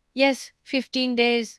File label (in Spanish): Voice Acting es F0